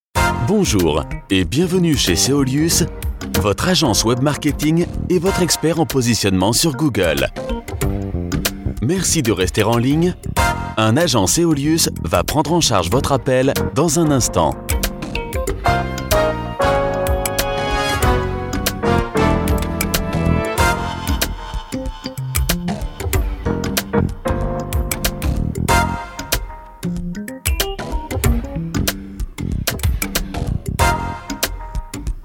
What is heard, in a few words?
french male voiceover, telephone